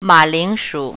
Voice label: "potato", Mandarin